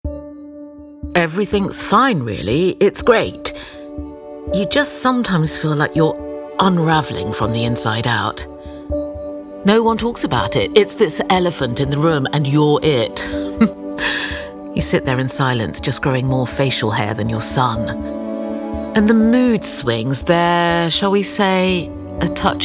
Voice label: commercial; holland and barrett

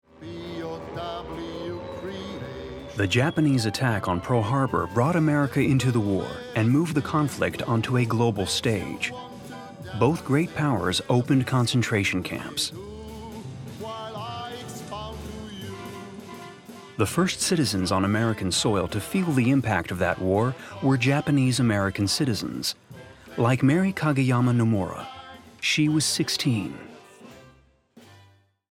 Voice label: Narrator; Pearl Harbor

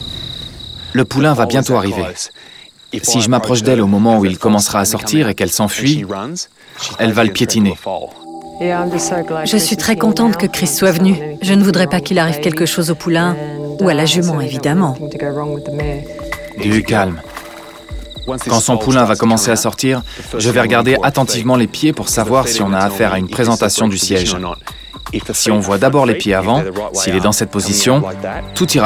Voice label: VoiceOver; Bondi Vet

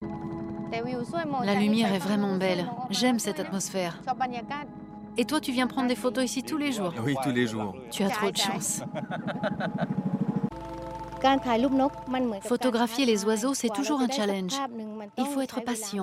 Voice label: medley voice over

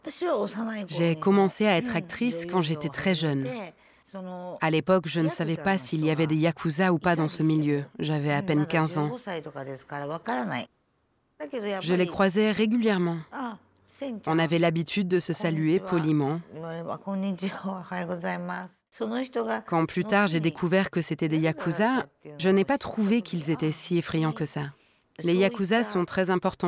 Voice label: voice over; Yakuzas

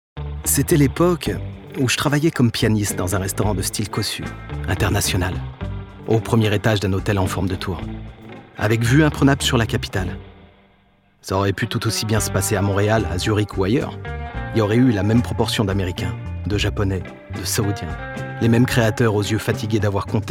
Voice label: NARRATION

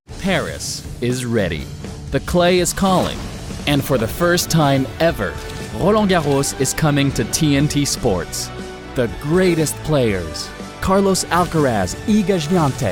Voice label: Billboard, US; TNT Sports

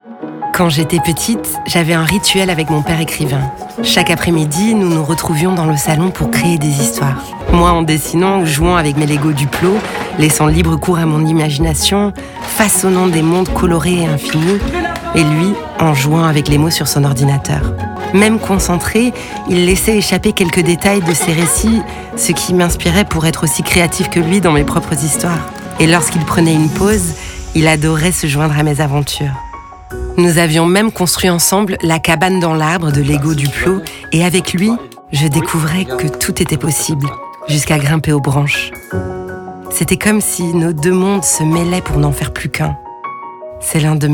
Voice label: FR; Elle; Lego

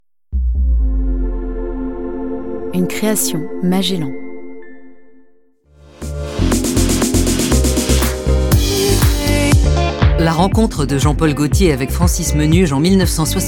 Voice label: narration; jp gaultier

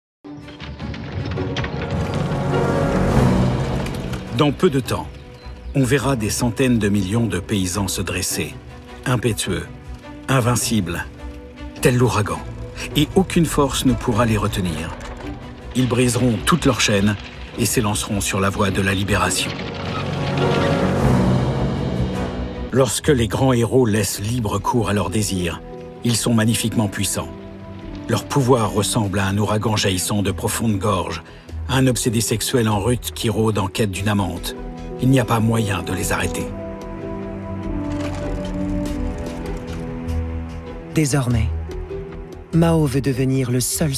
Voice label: Voice over; Role de Mao Zedong; La chine fabrique d'une nation

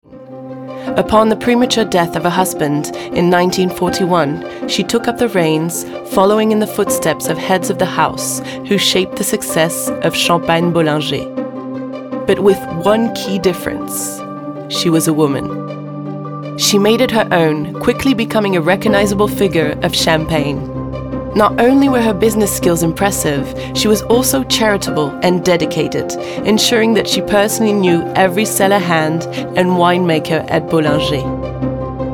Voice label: uk; Bollinger

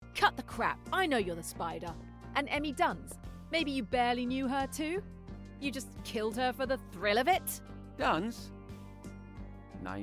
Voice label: Video Game Badass Spy; Agatha Christie

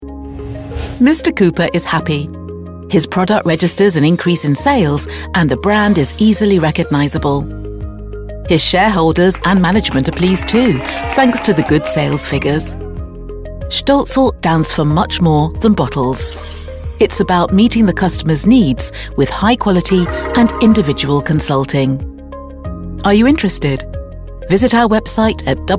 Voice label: corporate; stoelzle

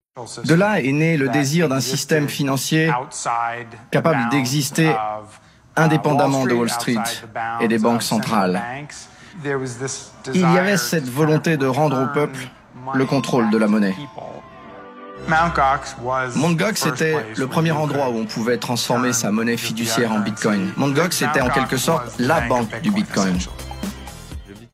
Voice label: Voice over; Bitcoin

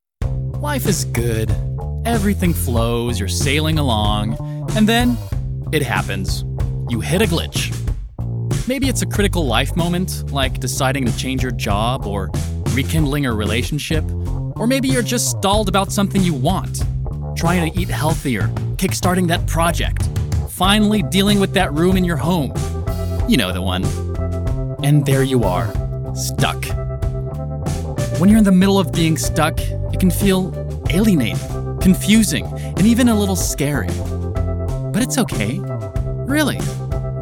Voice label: Pub US; Google Calendar

